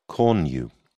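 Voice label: "cornu", British English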